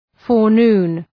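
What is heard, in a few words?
"forenoon", English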